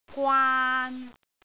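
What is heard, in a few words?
"gwaan3", Cantonese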